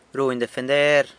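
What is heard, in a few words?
"runy defender", San Lucas Quiaviní Zapotec; defends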